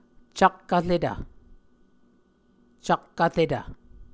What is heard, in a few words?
"cak-vretv", muscogee